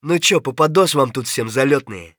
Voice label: scav mutter, voice; Escape from Tarkov; scav3